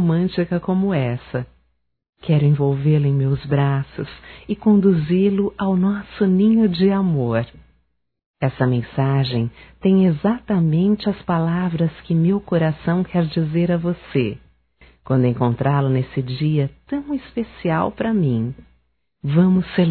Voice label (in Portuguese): Dia dos Namorados Feminino, Telemensagens, Só Voz; Telemensagens 2017